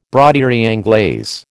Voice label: "broderie anglaise", American